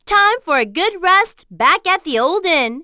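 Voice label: Vox Victory, voices heroes English; Juno; kingsraid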